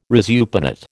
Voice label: "resupinate", American

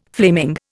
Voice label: "flaming", Indian

